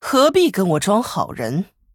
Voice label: Female Human MIRROR GREETINGS, zh

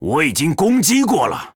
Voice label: Male Troll ERROR I ATTACKED; zh

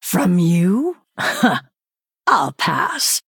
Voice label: Female Night Elf

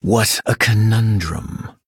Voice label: Male Blood Elf Thinking; en

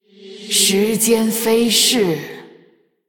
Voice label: Female Human Thinking; JainaDeathknight; zh

